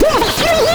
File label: VOX CAMERA; NES DPCM; MIDINES